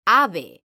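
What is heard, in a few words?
"ave", Spanish, female; mekanta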